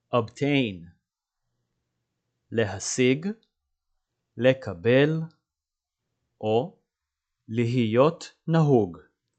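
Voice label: "obtain" English, "להשיג לקבל להיות נהוג" Hebrew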